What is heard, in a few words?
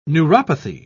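"neuropathy", British English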